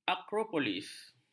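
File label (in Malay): "akropolis", Malay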